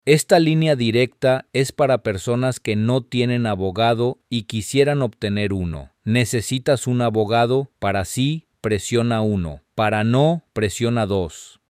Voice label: Spanish, hotline